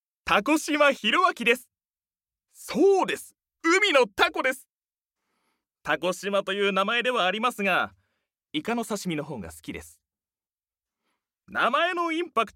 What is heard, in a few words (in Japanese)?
フリートーク